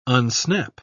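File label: "unsnap", British English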